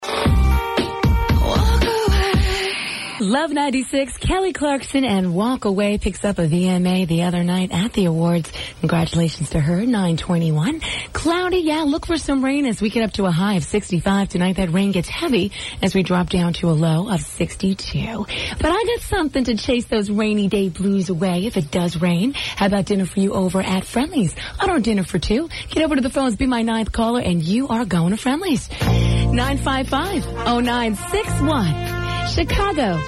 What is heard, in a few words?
Air Check